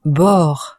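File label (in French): "bord", French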